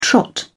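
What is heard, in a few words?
"trot", British English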